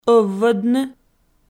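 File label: "ӧввӧдны", Komi, female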